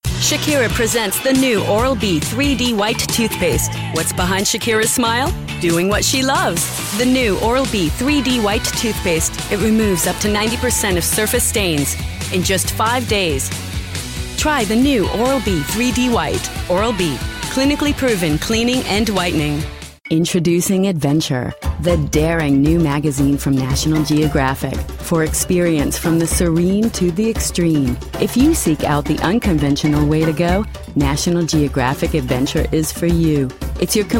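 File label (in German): US English, Werbung, Variabel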